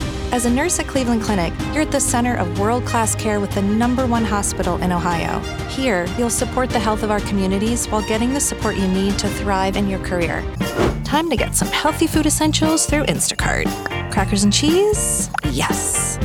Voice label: Commercial